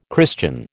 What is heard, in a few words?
"Christian", American